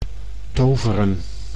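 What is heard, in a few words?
"toveren", Dutch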